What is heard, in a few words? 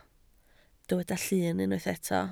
"llun", Welsh